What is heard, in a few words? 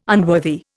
"unworthy", Indian